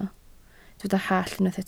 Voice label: "hallt", Welsh